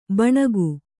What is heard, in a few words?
"ಭೂನಾಗ", kannada